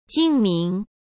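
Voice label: "精明", Mandarin Chinese; hsk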